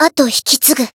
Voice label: Voice variable chant, jp; nagi